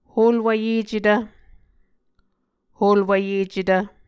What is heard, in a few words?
"holwvyecetv", Muscogee